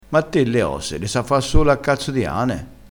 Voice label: "a cazzo di ane", Crespinese

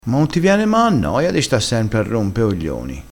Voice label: "ma", dialettale crespinese

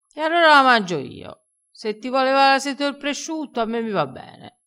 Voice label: "levassi la sete", crespinese

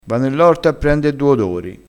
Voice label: "odori", crespinese

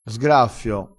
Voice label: "sgraffio", dialettale, crespinese

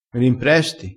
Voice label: "impresta", crespinese

